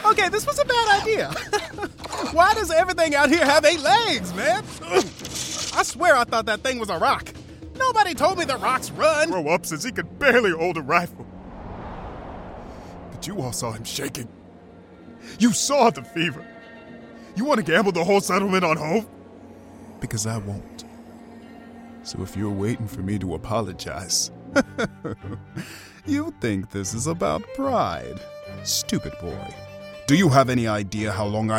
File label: Animation